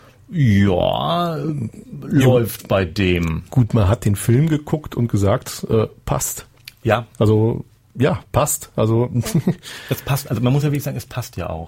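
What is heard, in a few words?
"Passt", German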